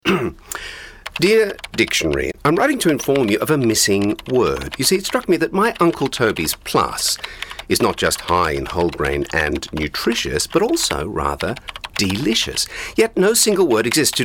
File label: Acting Reel; Voice Acting